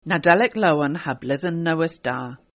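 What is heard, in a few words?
happy xmas Cornish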